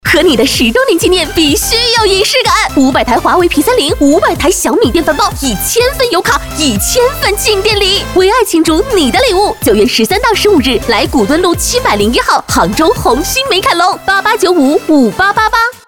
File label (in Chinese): 女 激情时尚; 红星美凯龙